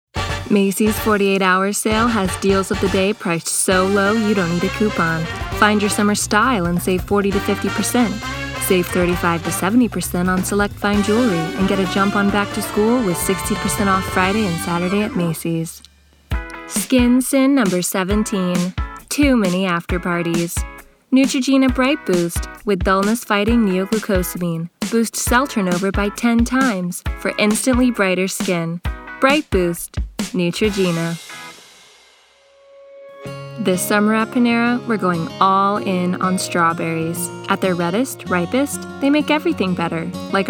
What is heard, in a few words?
vo, Women Commercial